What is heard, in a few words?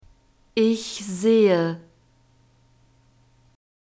"ich sehe", German; verbs present tense irregular